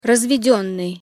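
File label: "razvedennyj", Russian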